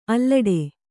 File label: "ಆಲೀಢ", Kannada